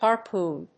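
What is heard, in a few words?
"harpoon", English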